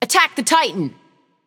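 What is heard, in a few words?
attack m, male; smite; eset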